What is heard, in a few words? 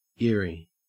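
"eyrie", Australian English; pronunciations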